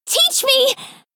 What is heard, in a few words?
Female Blood Elf Attack